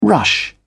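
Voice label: "rush", British English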